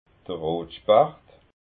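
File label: "rotspacht", Alsatian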